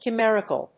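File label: "chimerical", English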